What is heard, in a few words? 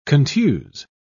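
"contuse", British English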